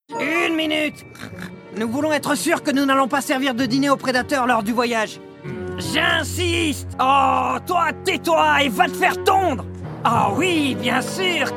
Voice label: male french actor comercial; ideasonora

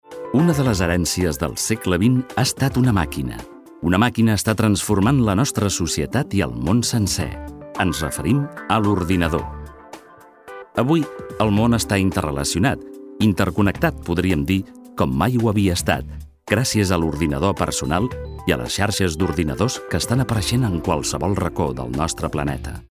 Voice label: male catalan documentary comercial

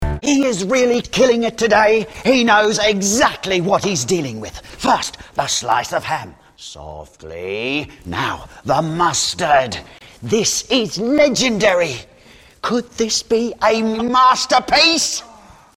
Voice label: male british english actor; ideasonora

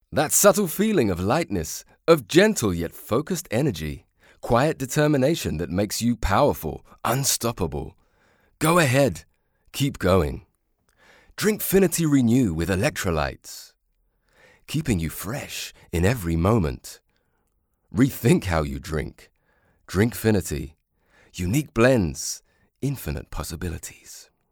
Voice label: male british english comercial; ideasonora

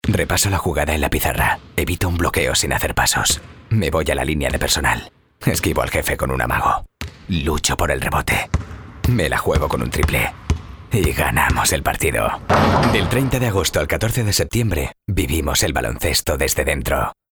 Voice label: male spanish sport comercial; ideasonora

